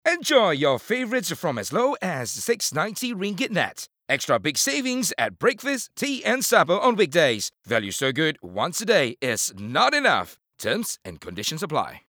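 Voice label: Announcer Dramatic VO English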